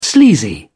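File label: "sleazy", British English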